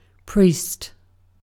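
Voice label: "priest", British English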